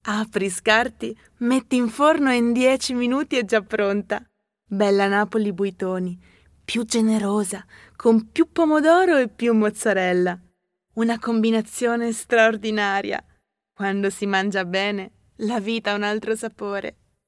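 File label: Italian, General; voice demos; GoLocalise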